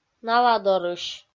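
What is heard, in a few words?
"наладорысь", Komi, female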